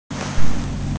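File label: "gai1", Mandarin Chinese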